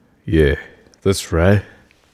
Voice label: Yeah That S Right Deep Voice Male

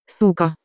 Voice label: "Cyka", Russian

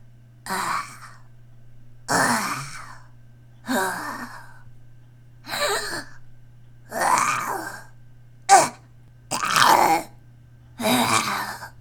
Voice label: Rpg Zombie Girl Battle Sounds

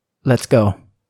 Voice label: Male

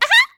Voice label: High Pitched "Aha"

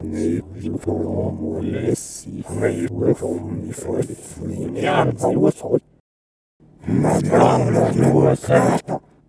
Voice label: Alien Voice

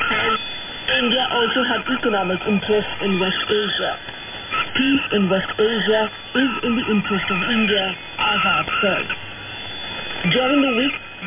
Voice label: Voice And Squeaks

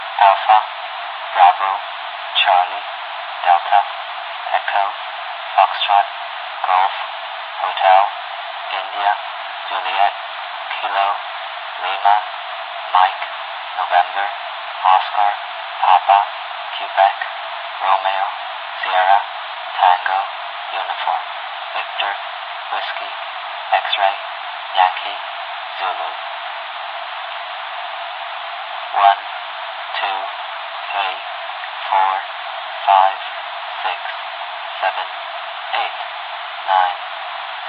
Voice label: Military Alphabet Spoken Over Radio

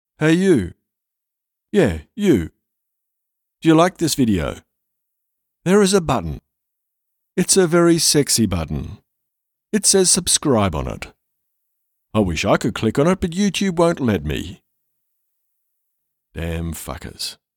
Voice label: Youtube Outro Read

